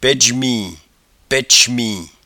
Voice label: "paidjemin", patois jura